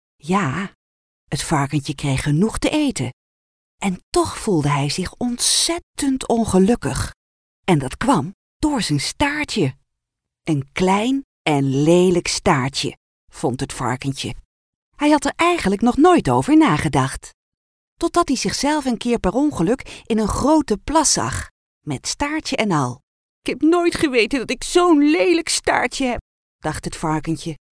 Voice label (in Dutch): audioboek kinderen